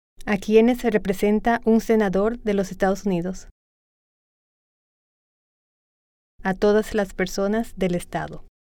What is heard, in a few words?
Spanish